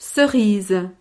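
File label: "cerise", French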